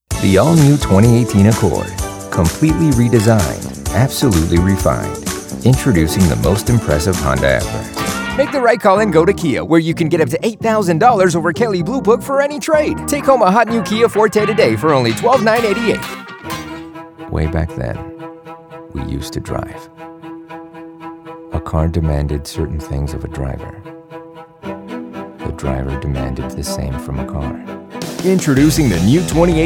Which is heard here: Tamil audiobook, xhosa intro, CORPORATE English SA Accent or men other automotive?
men other automotive